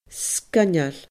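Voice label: "sgoinneil", Scottish Gaelic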